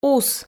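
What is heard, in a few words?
"ус", Komi, female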